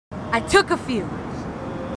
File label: marines female